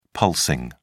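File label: "pulsing", British English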